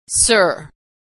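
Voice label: "sir", American English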